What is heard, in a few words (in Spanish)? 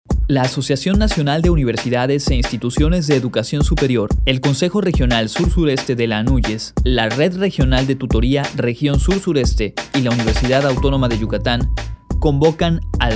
spot